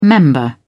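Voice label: "member", British English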